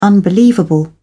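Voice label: "unbelievable", British English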